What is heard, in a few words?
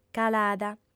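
"calada", female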